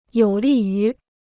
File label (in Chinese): "有利于", Chinese